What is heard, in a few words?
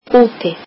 "outside", Icelandic